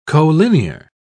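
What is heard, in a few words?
"colinear", British English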